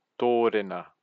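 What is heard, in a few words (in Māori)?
"torena", Māori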